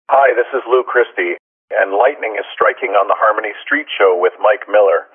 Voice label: promo